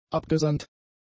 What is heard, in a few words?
"abgesandt", German; adjectives